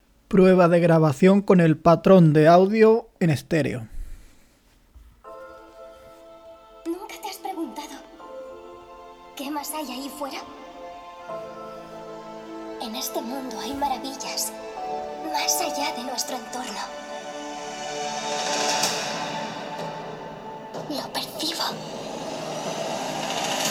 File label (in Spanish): MSI Immerse GV60 Estereo